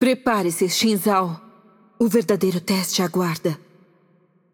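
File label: voice over, Brazilian Portuguese; Game Outro; bloom minigame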